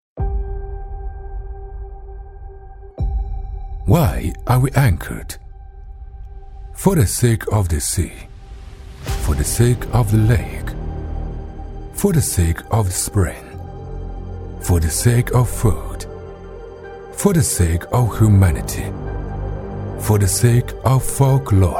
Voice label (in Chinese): 男 品质走心中英文 沧桑阅历; 中英双语; 大气浑厚